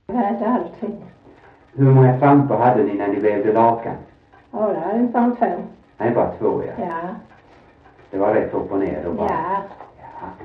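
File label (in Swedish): Sövde Skåne, dialekter svenska sagor; Folkminnen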